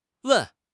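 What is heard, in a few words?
"wen4", Chinese; HSK; HSK2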